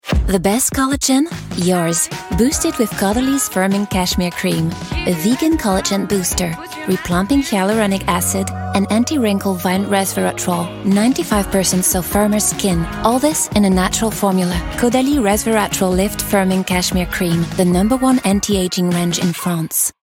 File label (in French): pub ENG; Caudalie